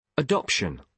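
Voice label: "adoption", British English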